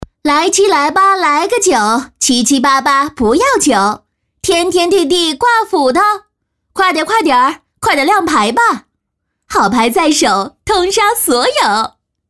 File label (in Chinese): 女, 棋牌, 打牌